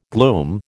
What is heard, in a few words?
"glume", American